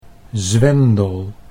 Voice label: "zwendel", Dutch

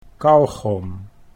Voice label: "kauwgom", Dutch

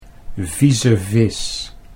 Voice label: "vieze vis", Dutch

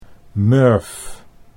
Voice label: "murw", Dutch

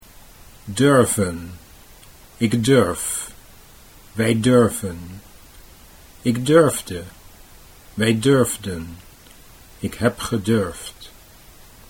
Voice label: durven ik durf, Dutch

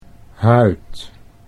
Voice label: "huid", Dutch

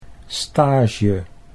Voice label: "stage", Dutch

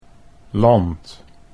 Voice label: "land", Dutch

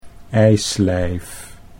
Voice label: "ijslijf", Dutch

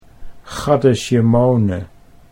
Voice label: "chaddesjemone", Dutch

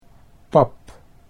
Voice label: "pap", Dutch

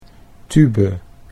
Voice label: "tube", Dutch

